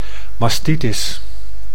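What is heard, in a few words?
"mastitis", Dutch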